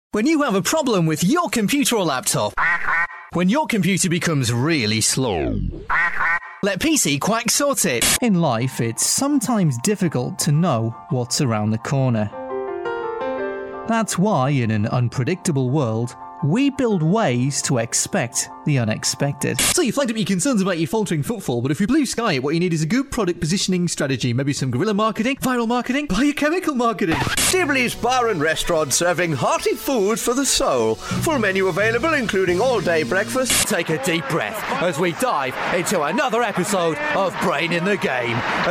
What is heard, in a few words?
voice british